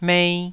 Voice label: "mei2", Taiwanese syllable